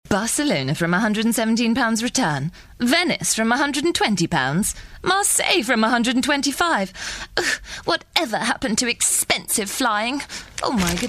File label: Character